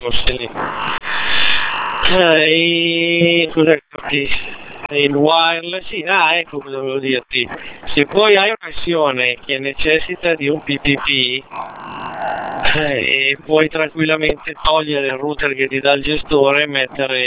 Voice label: dmr mbelib; codec